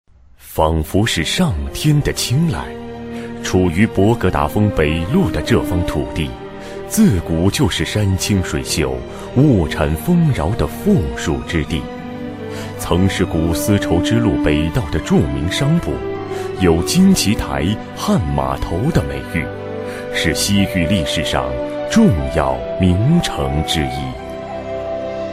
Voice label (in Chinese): 男 纪录片 录音, 国语男声配音 厚重大气; 丝绸之路汇通全国